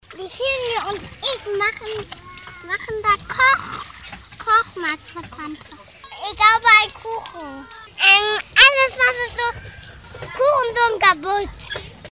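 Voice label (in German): 3 Jahre, Tonbeispiel, Sprache, spricht Sätze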